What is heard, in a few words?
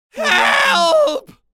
male desperate call for help